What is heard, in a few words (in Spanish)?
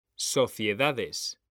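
"sociedades", Spanish; mekanta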